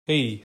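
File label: "ei", Estonian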